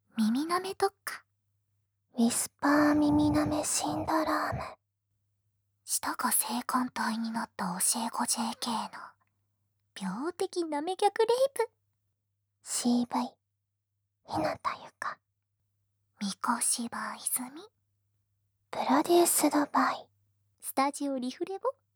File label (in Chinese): 标题朗读, ASMR